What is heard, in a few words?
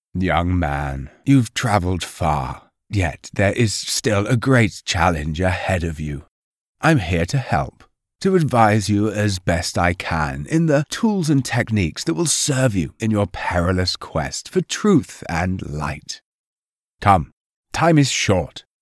videogame senior